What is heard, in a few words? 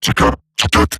Surprised Robot Large, Barks, Battle; Warframe; Eximus Corpus Robotic Large